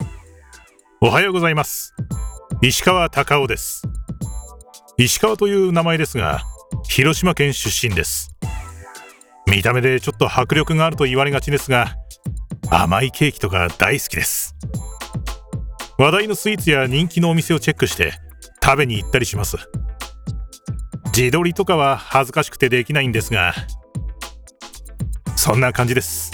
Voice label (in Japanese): 自己紹介 音楽入り